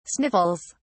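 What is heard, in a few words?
"sniffles", British English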